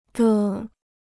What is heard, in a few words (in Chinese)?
"的", Chinese